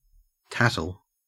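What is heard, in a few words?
"tattle", Australian English; pronunciation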